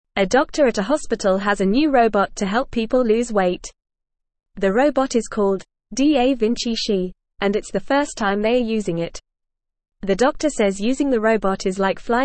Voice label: English Beginner FAST Reading; English Newsroom; New Robot Helps Hospital Do More Surgeries